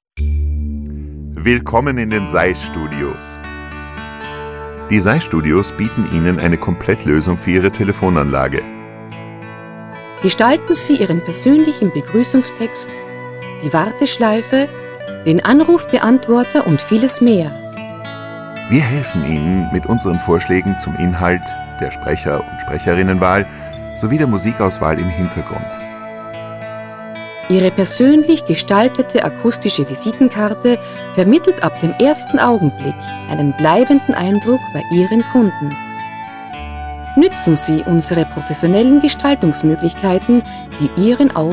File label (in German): Telefonband